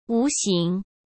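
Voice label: "无形", Mandarin Chinese; chinese flashcards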